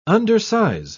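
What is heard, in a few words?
"undersize", English, British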